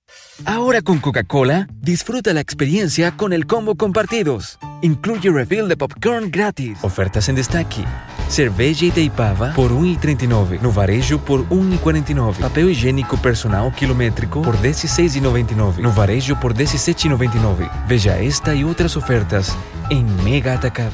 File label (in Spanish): Locutor chileno